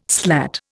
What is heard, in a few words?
"slat", Indian